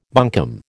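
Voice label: "bunkum", American English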